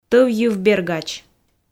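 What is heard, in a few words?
"тӧв йыв бергач", Komi, female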